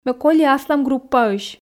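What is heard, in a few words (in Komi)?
ме коли аслам группаысь, Komi, female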